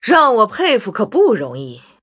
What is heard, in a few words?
Wow, zh